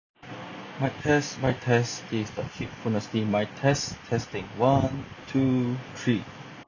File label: Quiet; Technics EAH AZ70W